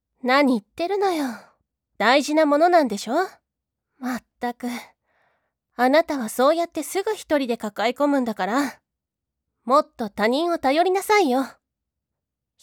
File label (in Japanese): セリフ 大人女性 明るい 前向き 同僚 先輩